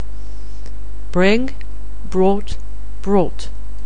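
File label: "bring", English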